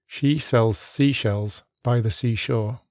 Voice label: english seashells slow; phon lab

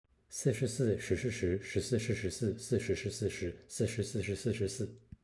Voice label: Mandarin slow; phon lab

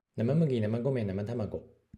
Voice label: Japanese fast; phon lab

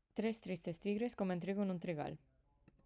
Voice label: spanish fast; phon lab

